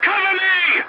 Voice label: voice message cover me, english; War Thunder